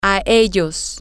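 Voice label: toThemM, Spanish, female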